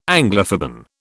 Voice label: "Anglophoben", British